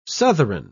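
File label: "southron", English, British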